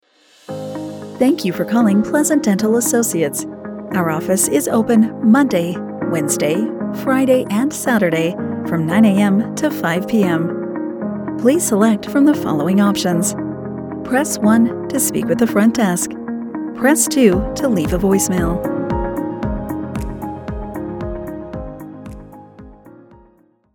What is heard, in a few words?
IVR with Music